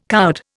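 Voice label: "coward", Indian English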